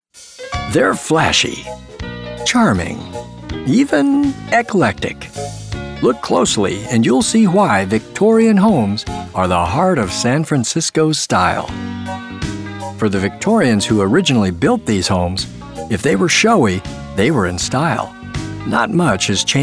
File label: victorian narration